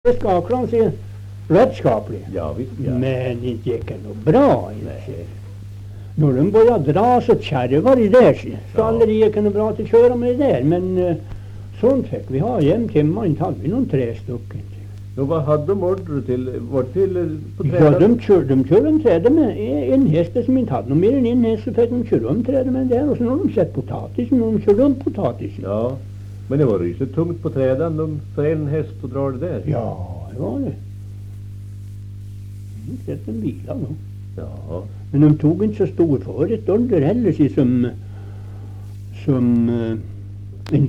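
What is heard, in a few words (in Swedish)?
Österfärnebo Gästrikland, Svenska sagor, dialekter; Folkminnen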